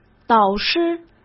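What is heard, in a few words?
"导师", Mandarin Chinese; hsk; chinese-flashcards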